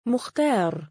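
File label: "mukhtaar", Arabic, male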